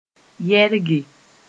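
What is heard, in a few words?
"yɛrge", Mossi; put for drying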